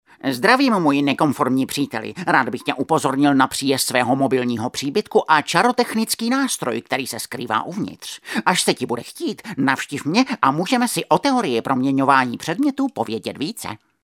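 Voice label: Male Czech drama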